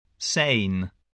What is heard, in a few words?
"sane", English spelling